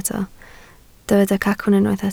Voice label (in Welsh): "cacwn", Welsh